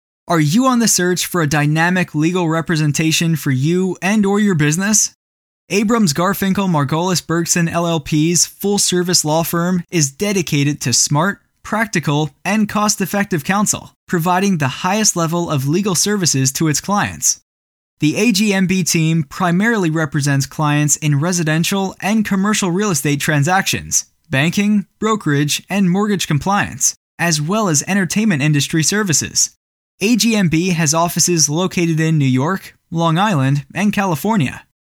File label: Law Voiceover